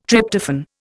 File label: "Tryptophan", Indian